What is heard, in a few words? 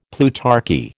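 "plutarchy", American English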